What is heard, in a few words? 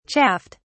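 "chaffed", British English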